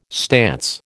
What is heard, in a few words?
"stance", American